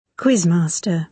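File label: "quiz-master", British English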